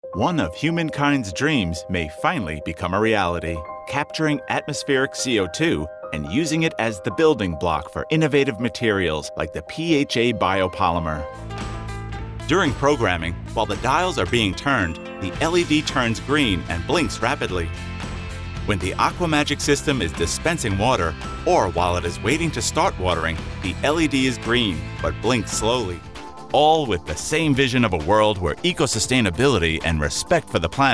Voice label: corporate